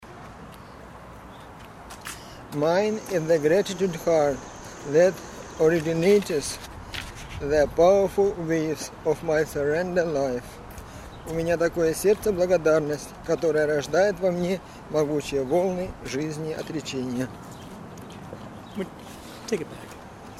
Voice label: russian book